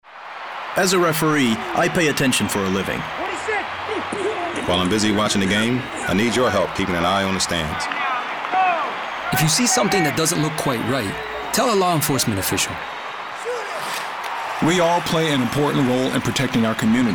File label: radio english